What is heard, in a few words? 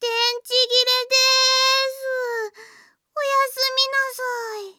battery off, Japan Anime Girls; Windows; Bing; Nana